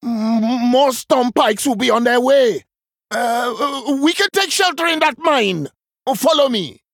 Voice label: Male Troll In Game Victory Post Explosion; Brukan